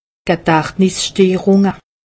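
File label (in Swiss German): "gedachtnissteerunge", Alsatian; medecine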